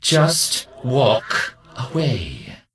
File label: Death Knight Male Low Pissed